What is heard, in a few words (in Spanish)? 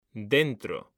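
"dentro", Spanish; mekanta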